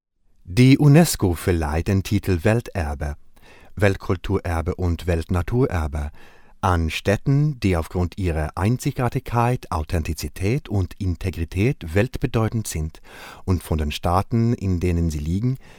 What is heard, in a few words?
male swedish voice over talent upbeat